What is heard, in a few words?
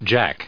"jack", en us